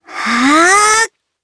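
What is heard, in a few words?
Vox Casting Japanese; Hanus; kingsraid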